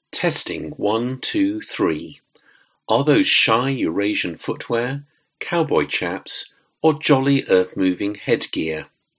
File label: test MacBook Air built in